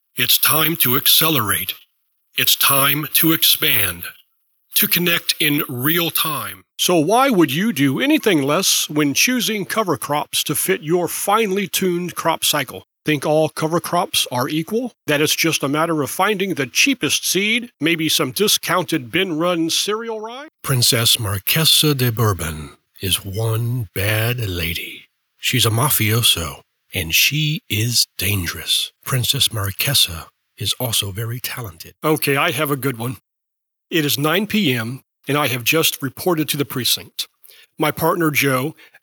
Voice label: Dry